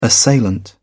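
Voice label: "assailant", British English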